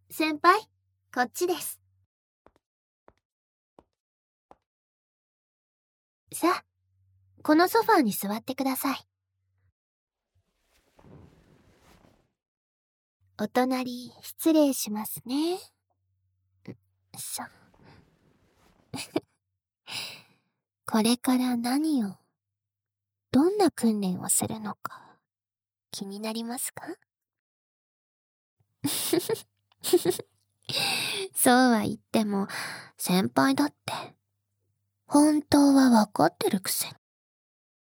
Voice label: 密着囁き耳責め, ASMR; 我慢レッスン開始スタート♪; 焦らし手コキ

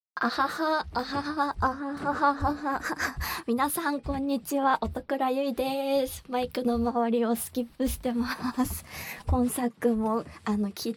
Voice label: フリートーク; SEあり

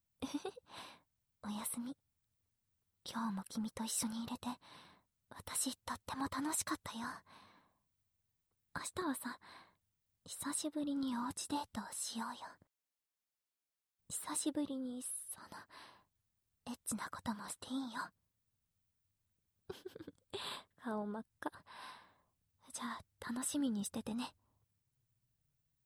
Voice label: おやすみボイス; 彼氏Ver

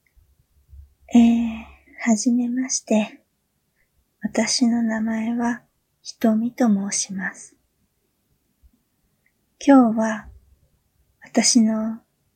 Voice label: 自己紹介インタビュー

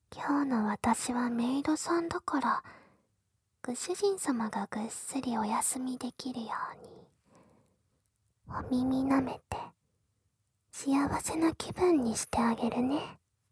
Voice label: メイドさんなのに、耳舐めもしながら一緒に就寝するボイス, ASMR

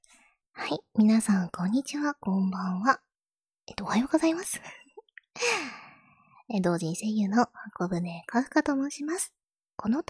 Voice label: フリートーク

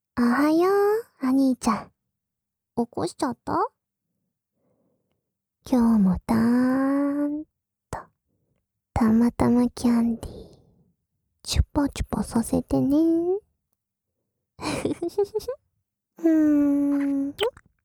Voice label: SEなし えっちな 目覚ましアラーム音声; グレーテル; 目覚ましアラーム音声2種類♪

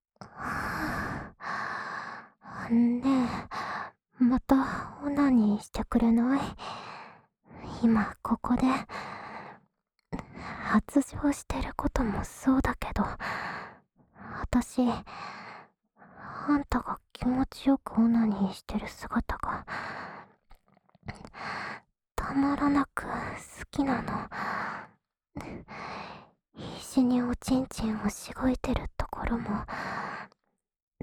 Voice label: オナサポ用 好き好き ボイス ループ（右耳）

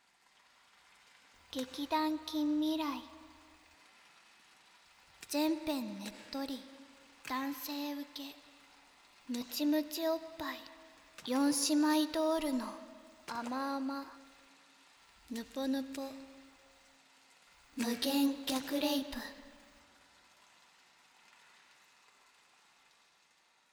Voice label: タイトルコール

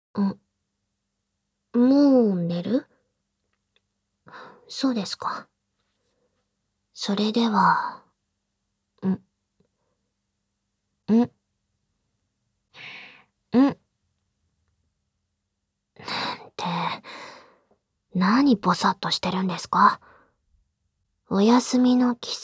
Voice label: ツンデレおやすみボイス♪; ノエル

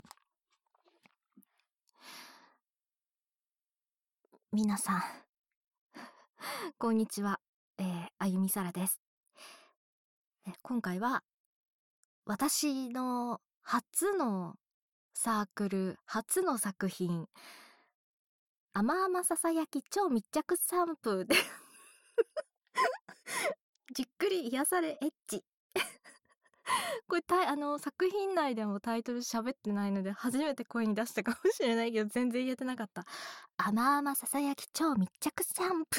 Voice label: フリートーク; キャスとフリートーク

